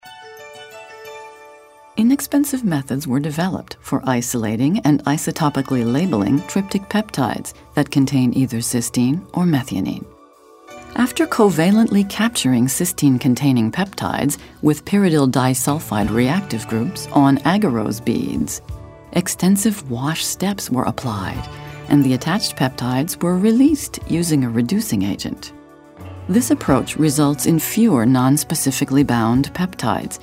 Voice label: narration scientific